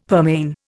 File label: "pearmain", Indian; Dictionary